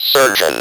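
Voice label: "surgeon" English, Speak & Spell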